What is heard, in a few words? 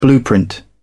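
"blueprint", British English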